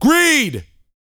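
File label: talk female, sci-fi; 5 SCI-FI CHZ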